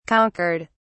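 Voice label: "conquered", British English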